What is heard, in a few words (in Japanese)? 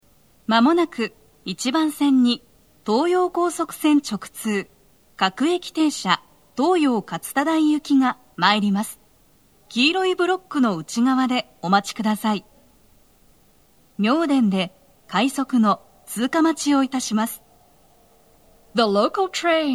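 接近放送, 女声, 放送は「各駅停車 東葉勝田台行き」です。妙典で、快速 東葉勝田台行きの通過待ちを致します。 Panasonic天井型での収録です。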